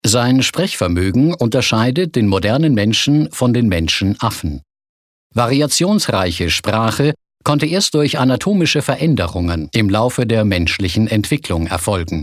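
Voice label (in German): Kommentar Sprache Neutral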